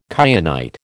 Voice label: "kyanite", American